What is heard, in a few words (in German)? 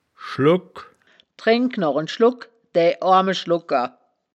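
"schlogk", Wittlicher Wörterbuch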